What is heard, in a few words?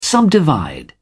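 "subdivide", British English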